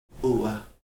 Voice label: "u̓", Heiltsuk